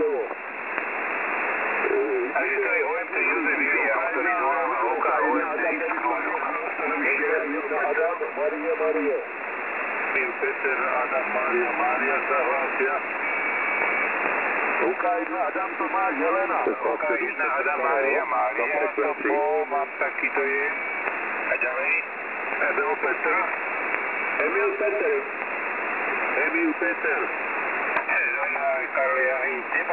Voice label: recording 3772.9 kHz; WebSDR records; OK-OM-DX; OK1KPU